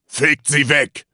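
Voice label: Voices Units Axis Grenadier attack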